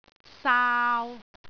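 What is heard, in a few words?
"saau3", Cantonese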